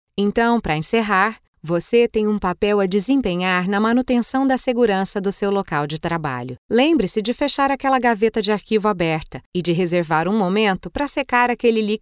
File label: portuguese brazilian e-learning